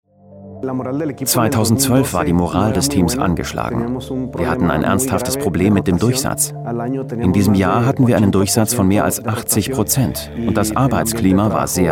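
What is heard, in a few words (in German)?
commentary, Neutral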